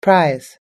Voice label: "prize", us english